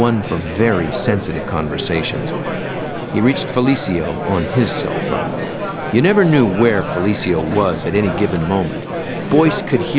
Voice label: mixed babble, speech noise